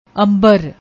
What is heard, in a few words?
"ambar", Punjabi; ਅੰਬਰ